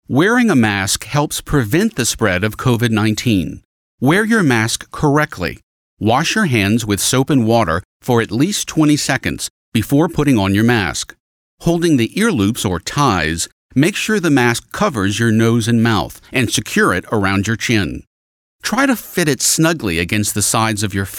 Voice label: PSA English; How to Wear a Mask; coronavirus

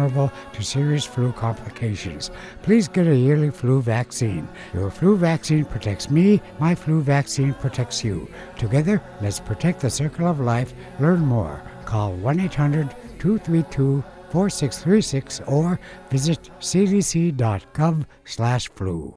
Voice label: seriousprotection yupik male elder; native and alaskan psas; flu

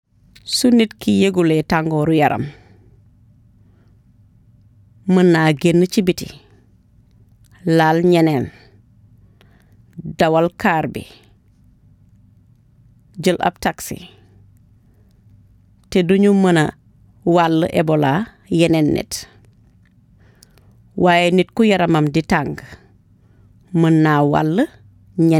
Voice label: wolof; ebola; radio-spots; vhf

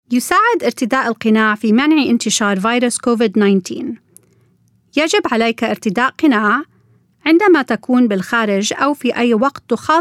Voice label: PSA Arabic Key Times to Wear a Mask; coronavirus

